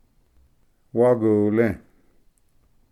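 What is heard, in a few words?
"wagule", Cherokee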